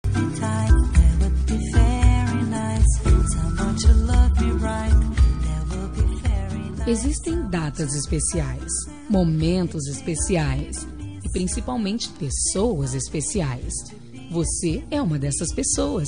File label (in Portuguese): madrinha fem, Aniversário Madrinha – Voz Feminina